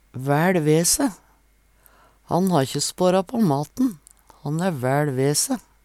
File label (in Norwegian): vael ve se, Numedalsmål; Han ha ikkje spårå på maten. Han æ væL ve se.; Uttrykk